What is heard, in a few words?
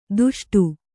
"ದುಷ್ಟು", Kannada